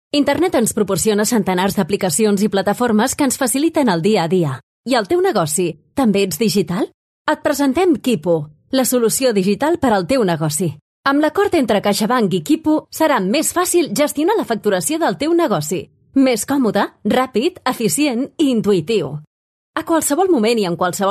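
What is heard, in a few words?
Catalan Female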